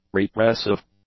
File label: "repressive", English; adjectives